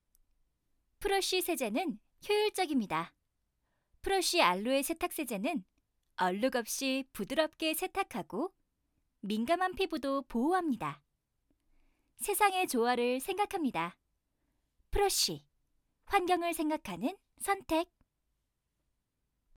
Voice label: 광고 밝은 20대

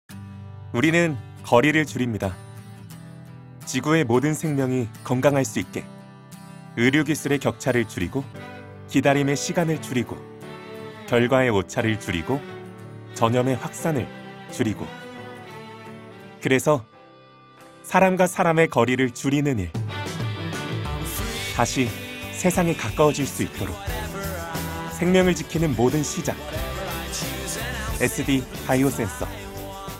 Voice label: 20대 산뜻한 기업광고

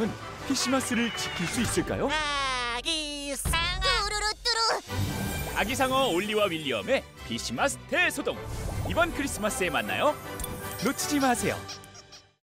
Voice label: 스팟 밝고 신나는 만화 예고

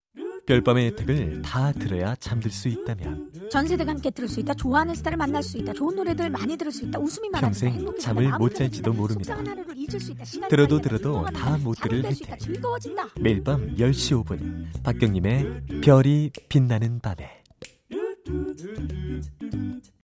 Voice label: 광고; MBC 별이빛나는밤에

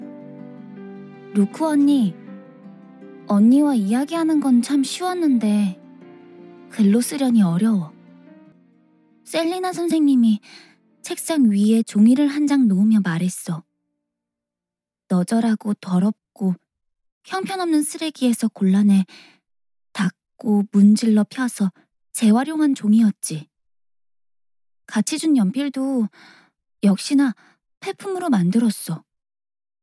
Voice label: narration 차분 조용한 10대 여학생

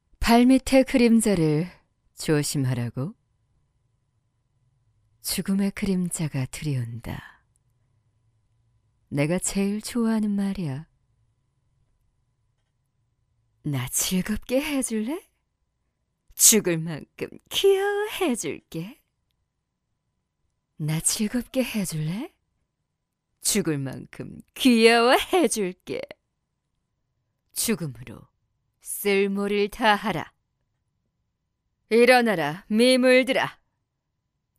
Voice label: 게임 섹시한 30대

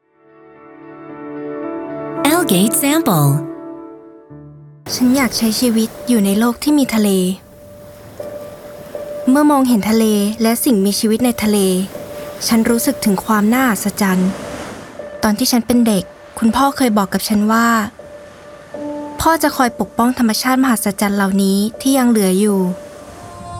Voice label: 태국 여 홍보

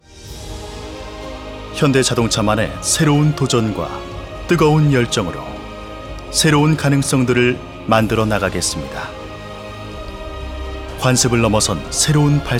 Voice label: 신뢰감있는 홍보; 현대자동차홍보

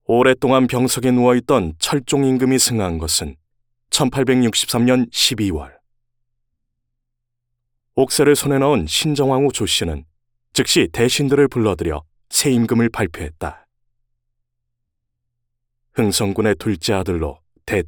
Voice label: 내레이션 지적인 다큐

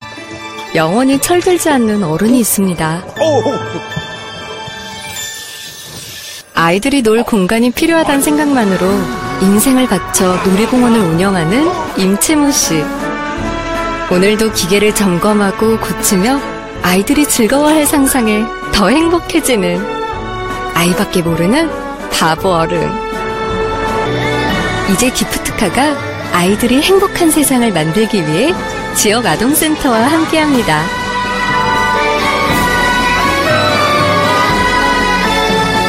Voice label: 기분 좋은 정감있는 내레이터 30대; 현대자동차

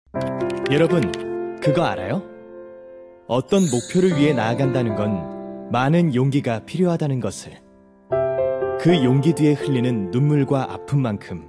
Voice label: 차분한 밝은 따듯한

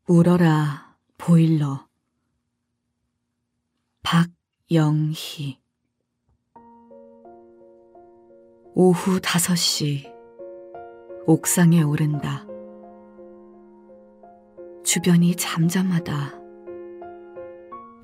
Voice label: 시낭송 30대 무거운 진지한

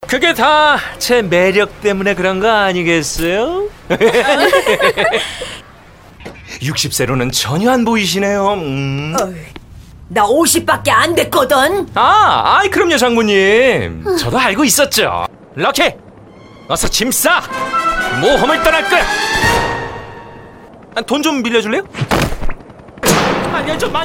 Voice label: 캐릭터 코믹 20대; 스페이스치킨

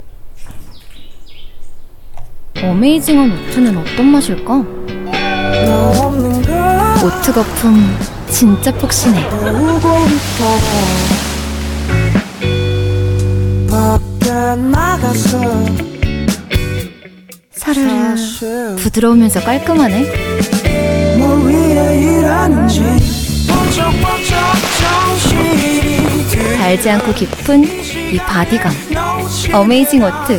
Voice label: 편안한 느낌의 광고

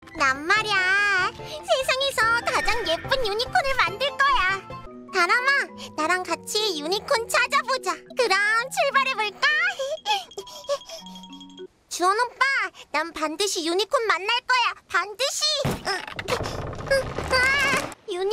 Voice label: 5세 귀여운 여자아이

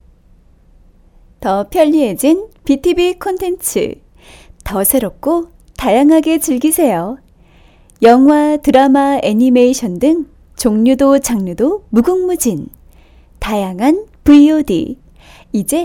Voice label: 안내멘트

